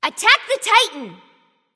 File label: vox attack m; smite; jingwei